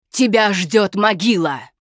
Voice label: Critical Hit, ru RU; Diablo III; озвучка некроманта